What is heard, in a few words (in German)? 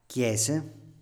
"chiese", Italienisch; Standard-Italienisch; Kirchen